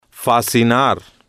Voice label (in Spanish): "fascinar", Spanish